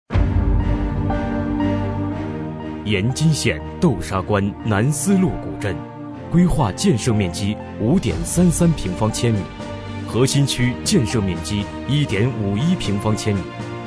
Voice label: 男声专题解说 厚重沉稳

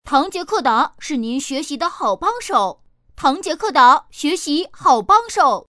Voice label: 女声; 男童学习帮手

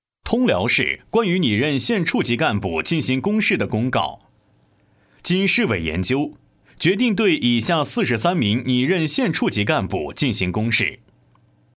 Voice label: 男声公告专题

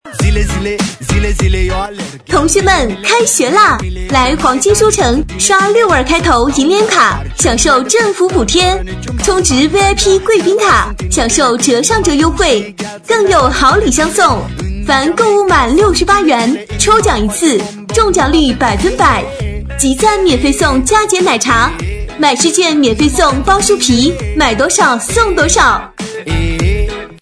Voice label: 女声书城开学活动

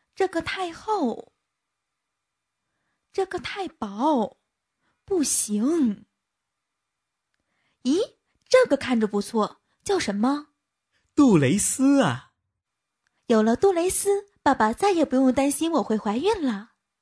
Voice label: 广告角色; 杜蕾斯

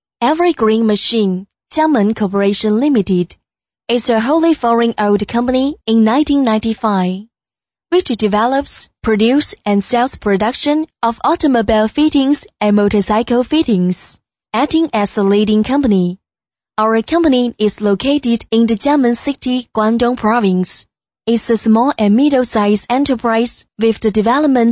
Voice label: 女声 英文 公司简介

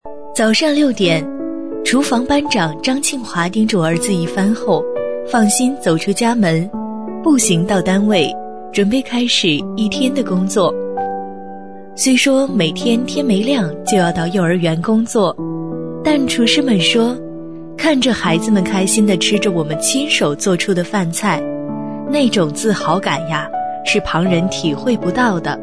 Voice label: 女声 解说旁白; 微电影

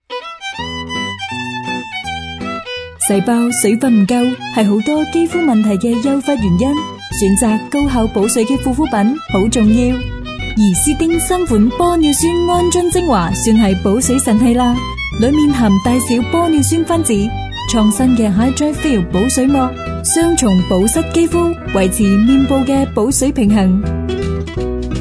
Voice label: 女声 广告 大气 护肤精华广告 粤语; 怡思丁

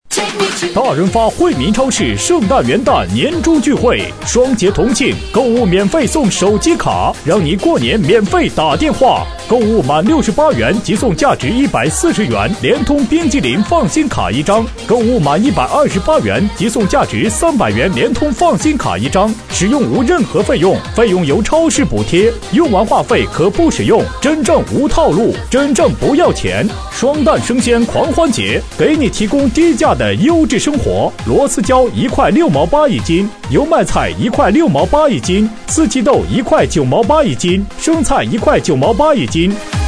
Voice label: 男声大润发超市促销

